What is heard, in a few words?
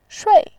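"shui", Mandarin Chinese, fourth tone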